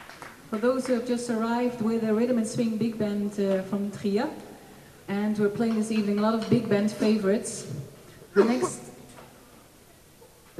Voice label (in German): Ansage